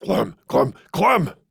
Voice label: Banter; Warframe; Clem; Dialog Barks Battle

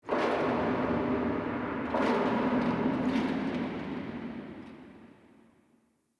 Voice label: Crypt Warrior Echo; Ambience; Champion Crypt

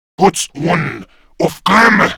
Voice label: Player Spotted, Male; Warframe; Grineer Male; Battle Barks

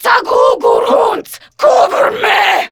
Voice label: Cover Me, Grineer Female; Warframe; Battle Barks

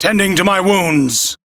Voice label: Crew Heal Self, Male, en; Warframe; Railjack Crew; Arbiters Hexis

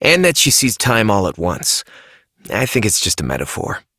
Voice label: Operator Voice, en; New War; Ascend Tower

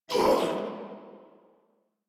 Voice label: Pain Sniper, en

